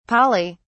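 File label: "poly", British English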